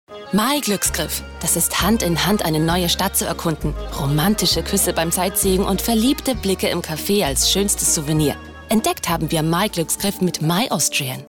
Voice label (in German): Frau OFF